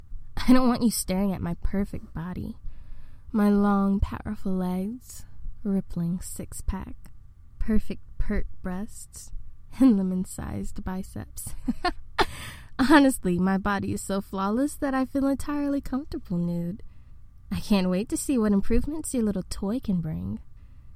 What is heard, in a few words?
Bass Boost; voice acted stories